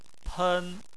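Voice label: "pen" first tone, Chinese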